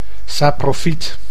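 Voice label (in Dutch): "saprofyt", Dutch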